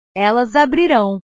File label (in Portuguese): "elas abrirao", portugues; verbos